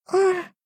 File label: shy